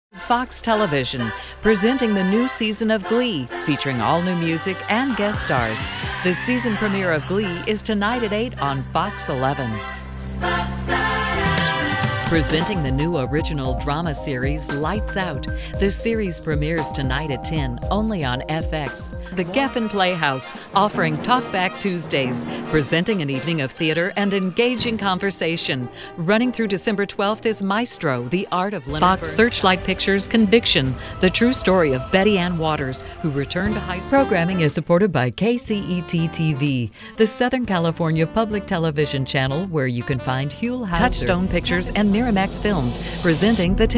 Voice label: Promo